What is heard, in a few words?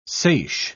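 "seiche", British English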